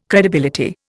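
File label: "credibility", Indian English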